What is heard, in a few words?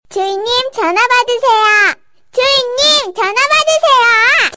Answer Korean